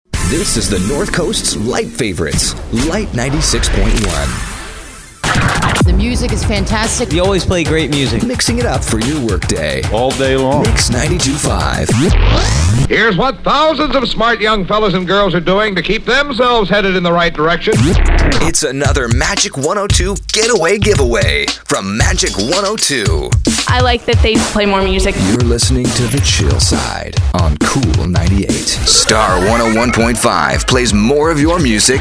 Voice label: AC, radio imaging

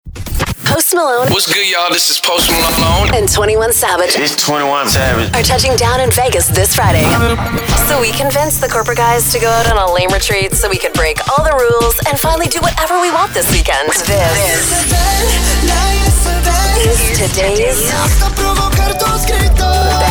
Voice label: radio women hot ac; radio imaging

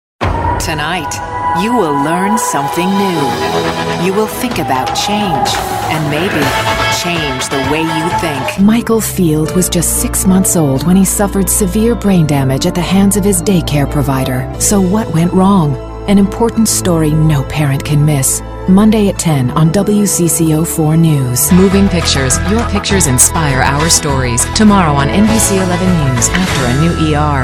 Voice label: tv affiliate female

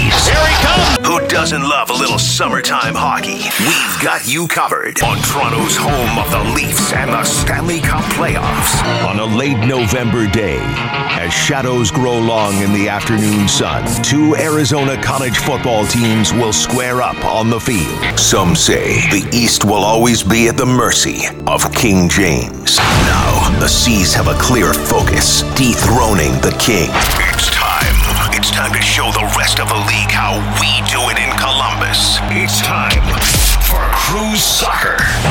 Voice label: radio sports men, radio imaging

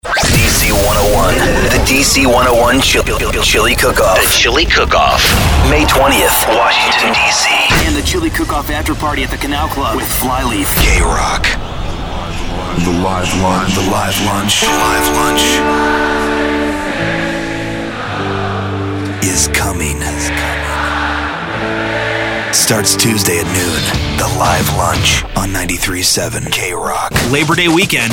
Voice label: radio; imaging

